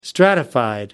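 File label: "stratified", British English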